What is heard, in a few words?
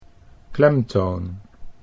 "klemtoon", Dutch